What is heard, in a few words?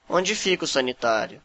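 toilet, Brazilian Portuguese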